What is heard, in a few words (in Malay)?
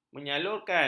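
"menyalurkan", Malay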